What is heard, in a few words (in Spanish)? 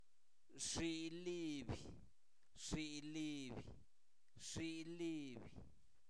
Zapoteco, santo domingo albarradas; INALI; posesivos; su ala